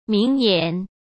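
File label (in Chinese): "名言", Mandarin Chinese; hsk; chinese flashcards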